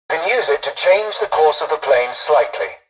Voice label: tutorial dialogue, english; War Thunder